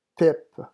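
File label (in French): "pipe", French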